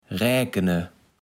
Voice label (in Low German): "Räkene", Platt